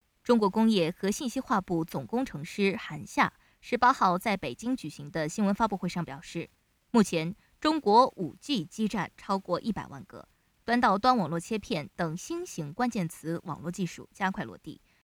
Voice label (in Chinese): 新闻